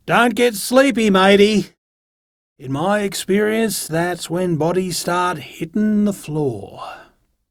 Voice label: Idle, en, Dialog Barks Battle; Warframe; Chipper

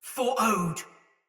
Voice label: Attack Normal Soldier Mace Agent Female; Battle; Sinecure Melee

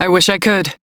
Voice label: Crew Reject, Female; Dialog; en; Warframe; Railjack Crew; New Loka

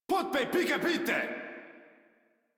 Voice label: Attack, Modular Corpus Male; Warframe

